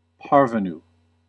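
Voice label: "parvenu", US English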